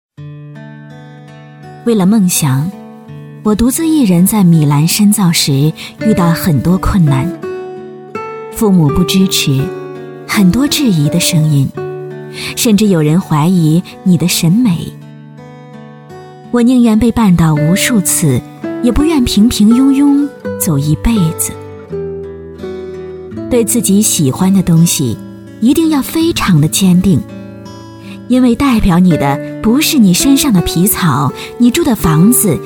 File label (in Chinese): 女 独白 知性